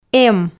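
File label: "эм", Komi, female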